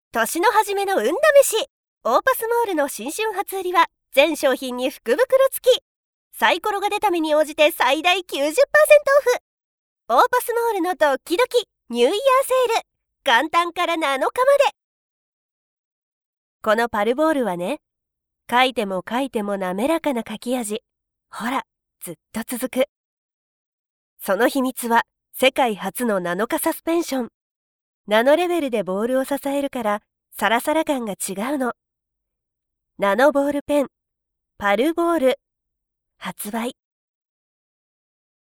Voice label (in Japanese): narration